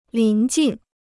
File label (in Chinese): "临近", Chinese